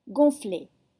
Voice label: "gonflés", French